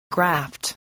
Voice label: "graft", spelling